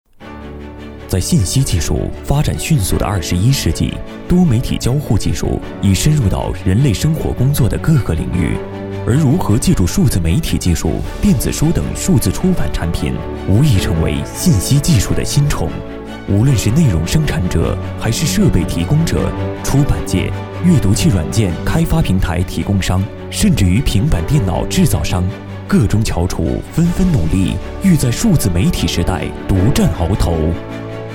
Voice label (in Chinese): 男 大气科技; 《数字媒体制作》